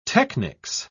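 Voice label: "technics", British English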